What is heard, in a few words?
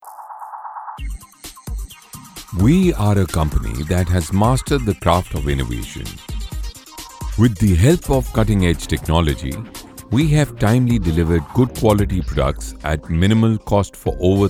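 Corporate English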